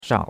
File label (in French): "shao3", chinois; Cours-de-Chinois